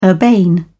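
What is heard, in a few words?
"urbane", British English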